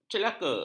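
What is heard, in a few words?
"celaka", Malay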